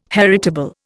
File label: "heritable", Indian